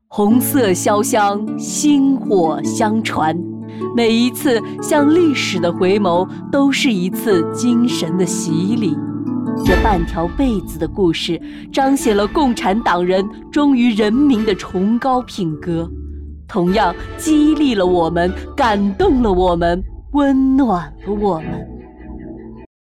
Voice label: 激昂成熟

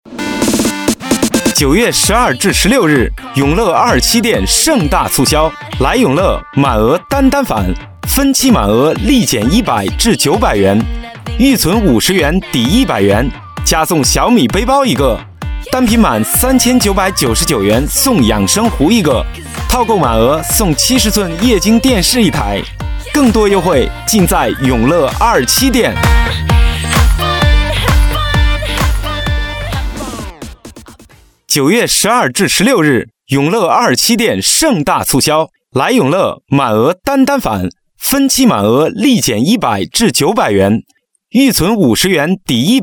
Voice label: 促销

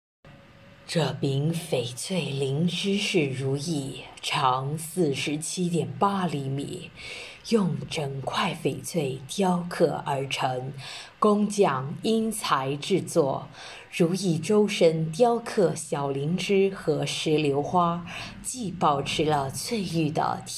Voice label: 胸腔共鸣; 如意

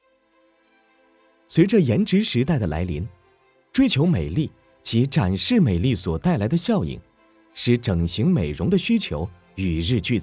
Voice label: 宣传片轻快医美

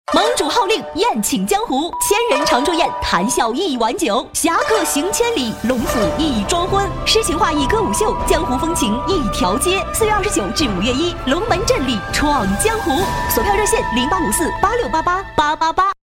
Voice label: 女; 龙门镇女成品

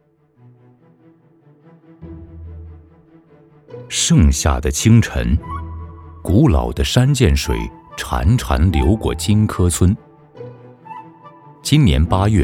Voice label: 纪录片; 舌尖上的中国黄石金柯辣椒

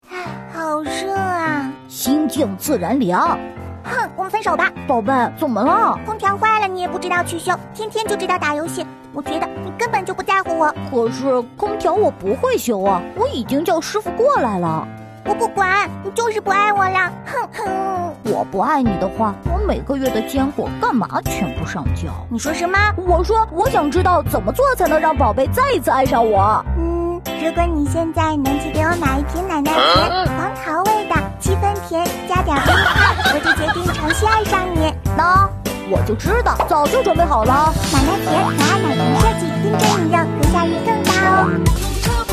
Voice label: 系列男童; 三只松鼠代言人

